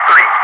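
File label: "2" calm, English; Wingman; DCS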